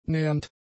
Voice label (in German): "nähernd", German; adjectives